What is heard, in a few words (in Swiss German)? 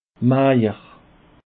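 "maejer", Alsatian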